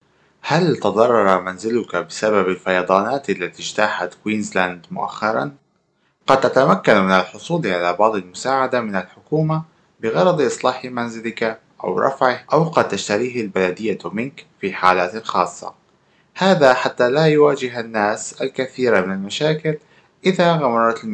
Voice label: arabic